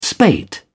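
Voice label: "spate", British English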